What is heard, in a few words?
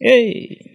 "ay", voice